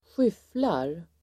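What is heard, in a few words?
"skyfflar", Swedish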